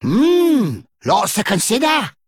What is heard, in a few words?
Male Dredger Idle